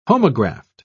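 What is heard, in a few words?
"homograft", British English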